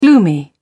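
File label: "gloomy", English, British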